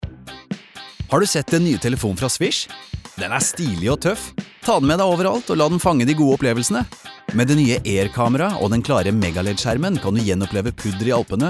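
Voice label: Norwegian fresh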